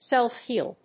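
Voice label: "Self-heal", English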